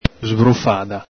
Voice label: "sbrufada", Veneto

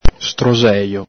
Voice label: "stroxejo", Veneto

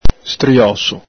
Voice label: "strioso", Veneto

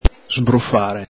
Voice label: "sbrufhare", Veneto

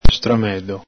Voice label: "stramedho", Veneto

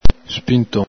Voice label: "spinton", Veneto

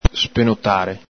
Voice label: "spenotare", Veneto

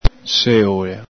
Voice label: "seola", Veneto

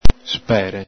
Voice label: "spere", Veneto

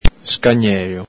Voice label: "scagnelo", Veneto

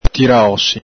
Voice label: "tiraosi", Veneto

